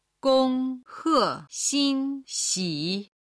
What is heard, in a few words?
"gonghe xinxi", Chinese